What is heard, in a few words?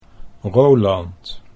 "Roland", Dutch